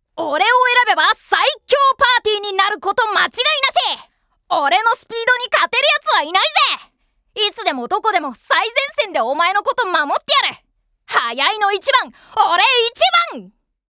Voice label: 10代 活発男子

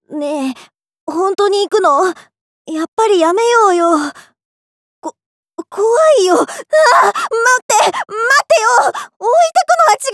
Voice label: 少年

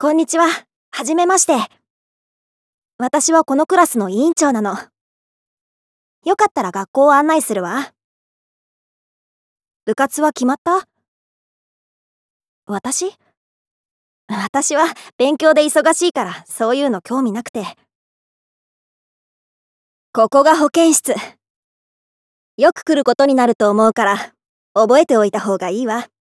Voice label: 女子高生

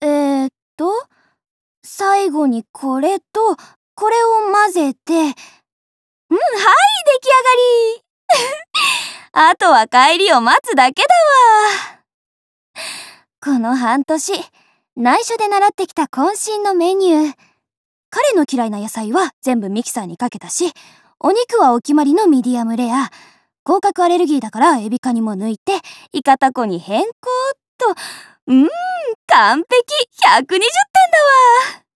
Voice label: 女子 アニメ風

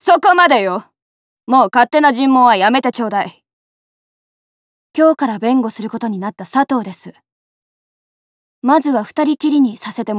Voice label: 成人女性

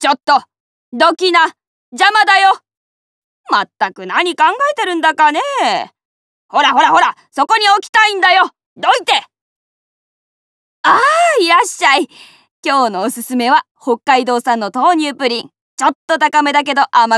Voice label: おばさん

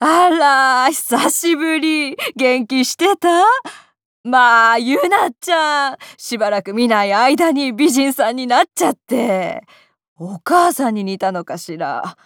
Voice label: おばさん

